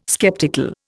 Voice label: "sceptical", Indian